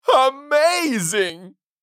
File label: Amazing cartoon voice funny comedy